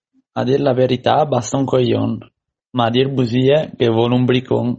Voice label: Trevigiano Veneziano